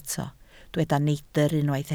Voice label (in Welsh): "neidr", Welsh